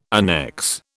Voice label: "annexe", British